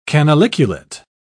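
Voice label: "canaliculate", British English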